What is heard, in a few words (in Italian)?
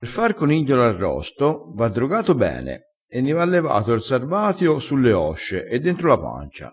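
"droga", dialettale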